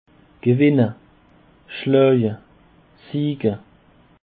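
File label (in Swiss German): "gewenne", Bas Rhin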